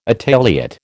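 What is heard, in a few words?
"Italiot", American English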